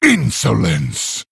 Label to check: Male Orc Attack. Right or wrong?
Right